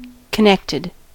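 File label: "connected", US English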